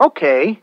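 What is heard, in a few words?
"okay", male voice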